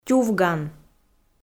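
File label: "тювган", Komi, female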